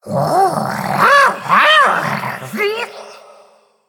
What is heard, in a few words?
Male Murloc FIRE FESTIVAL; en